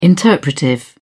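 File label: "interpretive", British English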